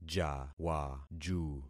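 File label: "jawaju", concatenated syllables; Affixing experiment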